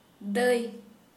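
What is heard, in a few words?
older sister, Santali, female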